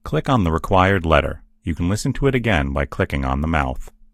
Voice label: click on letter up, en US voices; gcompris